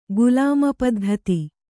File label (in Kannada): "ಗುಲಾಮಪದ್ಧತಿ", Kannada